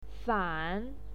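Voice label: "fan", Mandarin Chinese, third tone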